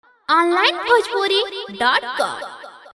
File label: voice track